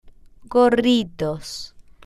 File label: "gorritos", spanish